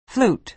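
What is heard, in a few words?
"flute", American English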